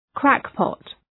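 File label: "crackpot", English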